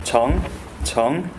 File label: jeong male